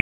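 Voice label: Raleigh Police Downtown District Tactical, p25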